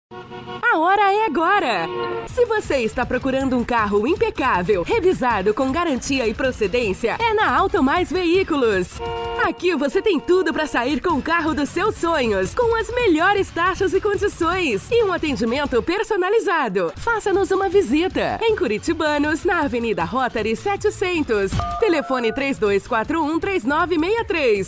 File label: portuguese brazilian automotive